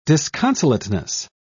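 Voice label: "disconsolateness", English, British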